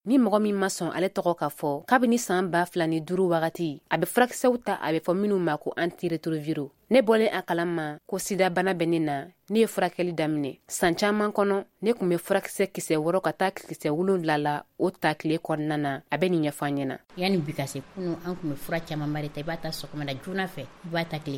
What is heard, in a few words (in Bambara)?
magazine bamanankan